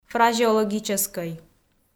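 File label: "фразеологическӧй", Komi, female; kpv audio dict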